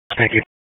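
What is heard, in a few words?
Sheriff's Office Dispatch; WSO DISP 1; wake; p25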